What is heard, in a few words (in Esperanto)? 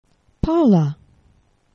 "pala", esperanto; geneva